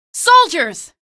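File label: citizen alert soldier, female; source engine; episodic; episode 1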